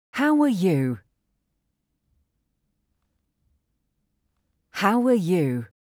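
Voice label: How are you, English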